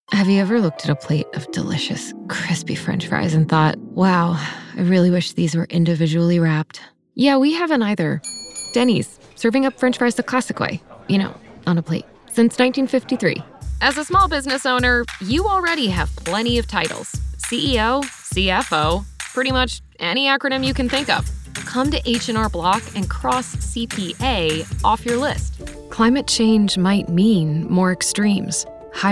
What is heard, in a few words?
english american commercial; United States